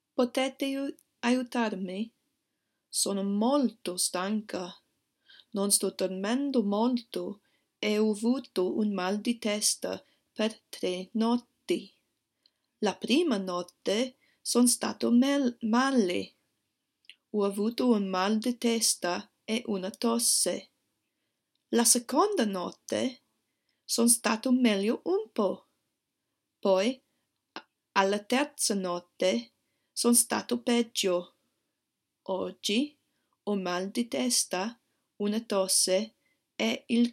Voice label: speaking question, italiano